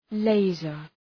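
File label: "laser", English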